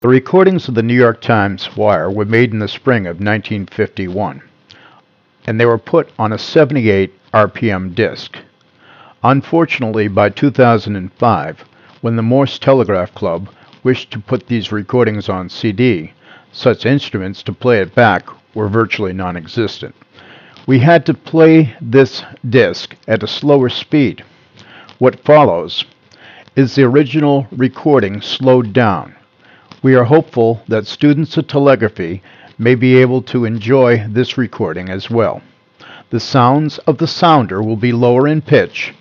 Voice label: Narration; New York Times Morse Wire Last Day 1951